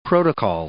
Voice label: "protocol", English